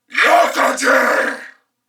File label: Attack Male, en